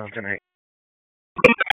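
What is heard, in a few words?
Raleigh Police Special Operations Tactical, p25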